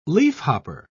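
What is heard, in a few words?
"leafhopper", English, British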